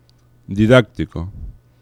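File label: "didactico", Spanish; laboratori fonetica; paraules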